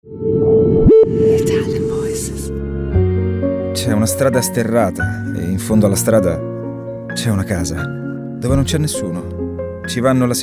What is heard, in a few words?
Naked Reel, Male Voices, ITALIAN VOICES